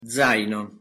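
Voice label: "zaino", Italian pronunciation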